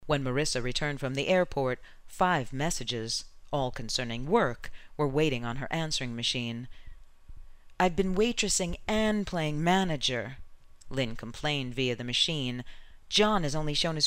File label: Audio Book Sample